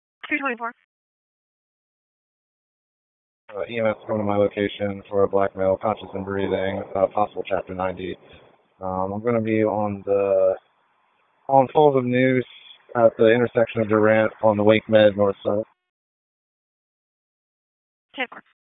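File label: Raleigh Police Northeast District Dispatch, p25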